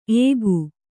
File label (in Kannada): "ಏಕು", Kannada